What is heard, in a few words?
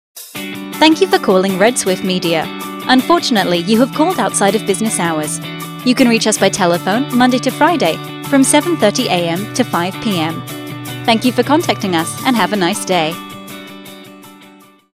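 UK, female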